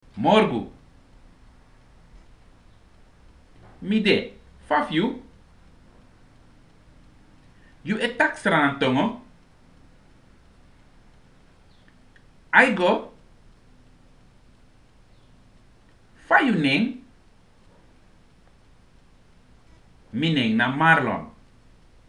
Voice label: halfdialouge, Sranan; PeaceCorps

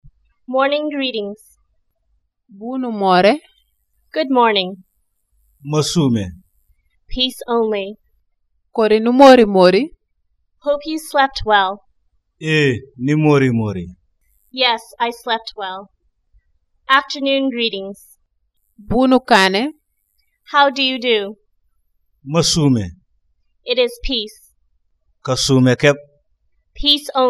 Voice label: Morning and Afternoon Greetings, Jola; PeaceCorps

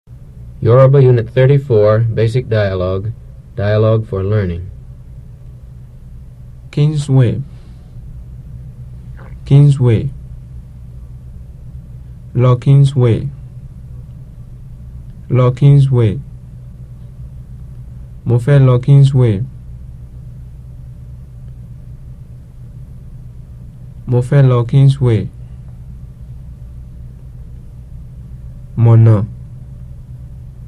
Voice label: Yoruba; FSI; Basic

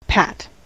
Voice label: "pat", En-us

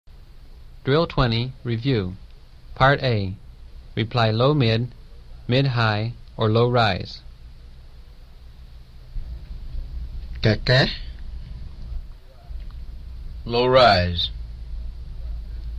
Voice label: Yoruba; FSI; Basic

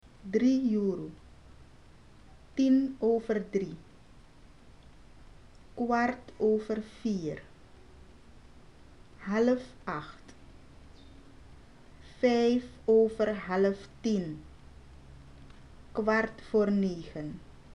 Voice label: clocks, Sranan; PeaceCorps

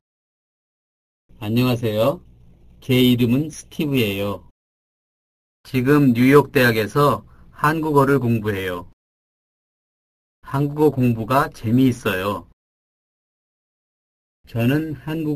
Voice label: Korean Narration; DLI; Lesson 4 - Narration

